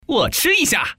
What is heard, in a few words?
common man